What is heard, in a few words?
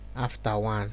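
"after one", Nigerian Pidgin, male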